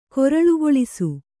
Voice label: "ಕಾರಳೆಗೊಳಿಸು", Kannada